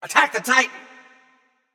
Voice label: vox attack m; smite; xbalanque